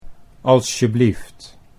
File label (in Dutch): "alsjeblieft" Dutch